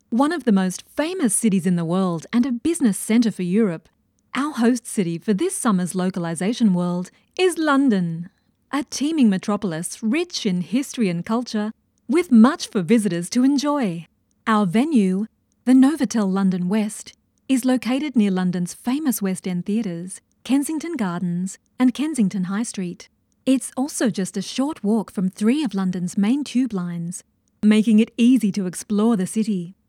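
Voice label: English Australian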